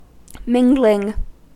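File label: "mingling", US English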